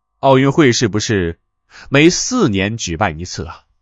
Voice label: male, zh; KE-Omni